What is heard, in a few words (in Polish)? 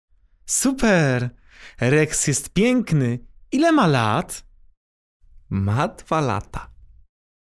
dialog